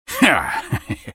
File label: ultimate effort, en US; Twisted Fate